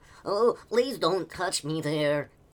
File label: Male Kid Beggings